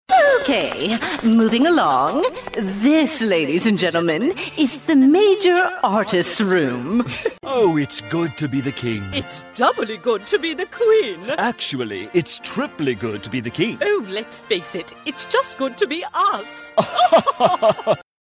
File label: Stuffy Pompous